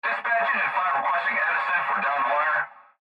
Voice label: Fire Dispatch Male- Dispatch Engine 5 Requesting Edison For Downed Wire; Radio Dispatch Sound Effects; Emergency